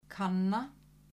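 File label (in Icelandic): "kanna", Icelandic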